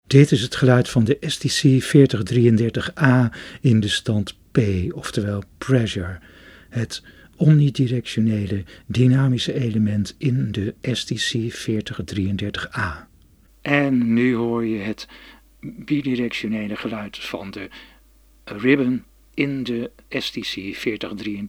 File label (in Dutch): STC 4033A-3 settings sound NL